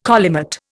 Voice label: "collimate", Indian

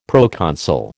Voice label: "proconsul", American

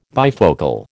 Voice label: "bifocal", American

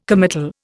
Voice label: "committal", Indian

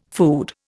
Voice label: "food", Indian

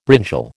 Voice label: "brinjal", American

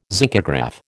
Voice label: "zincograph", American

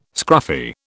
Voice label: "scruffy", British

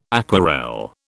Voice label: "aquarelle", British

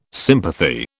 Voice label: "sympathy", British

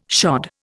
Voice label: "shod", Indian

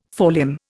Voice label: "forelimb", Indian

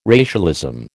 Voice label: "racialism", American

